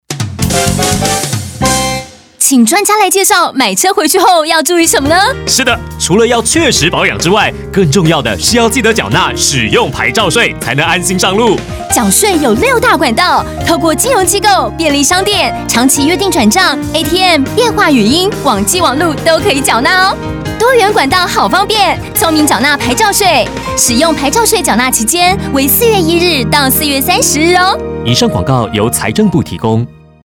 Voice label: 使用牌照稅宣導30秒廣播-國語; 使用牌照稅上期宣導短片國語

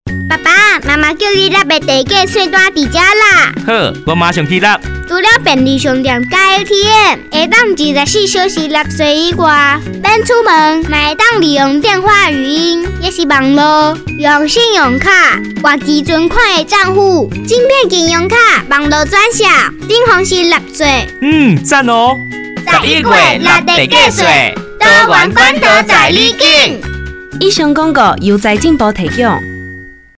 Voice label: 結合統一發票辦理全國性地價稅開徵宣導廣播廣告 台; 102年度地價稅開徵宣導短片臺語

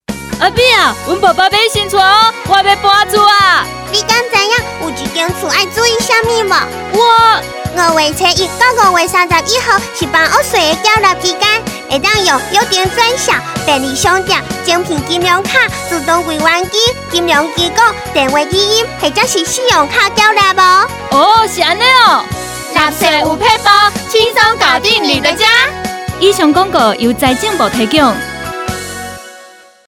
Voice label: 房屋稅30秒廣播廣告-台語

